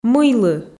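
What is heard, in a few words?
"мыйлы", Komi, female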